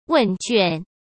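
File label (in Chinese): "问卷", Mandarin Chinese; hsk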